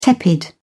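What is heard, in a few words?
"tepid", British English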